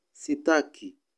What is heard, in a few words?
"sitaki", Swahili